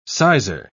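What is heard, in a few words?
"sizar", British English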